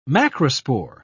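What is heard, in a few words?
"macrospore", British English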